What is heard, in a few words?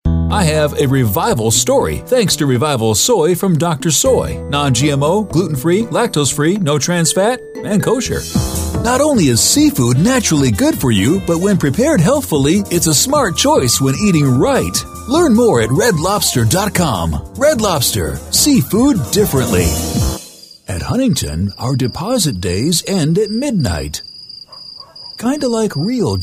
english us and canada male commercial